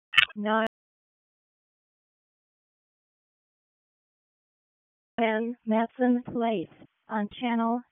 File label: WC EMS ALERT, p25; wake